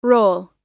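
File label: "role", American English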